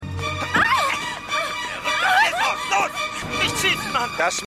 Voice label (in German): Junge Frau Raeuber; Outer Limits